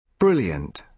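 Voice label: "brilliant", British English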